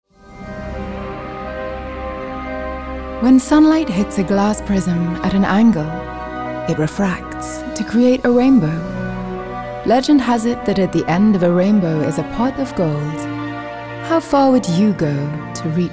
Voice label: Character